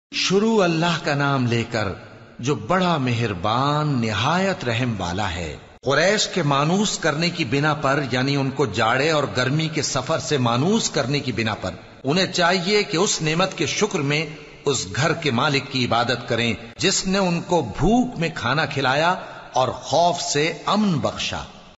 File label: SURAH QURAISH JUST URDU TRANSLATION; FATEH MUHAMMAD JALANDRI